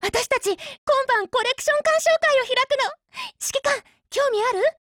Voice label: DIALOGUE JP; MP443Mod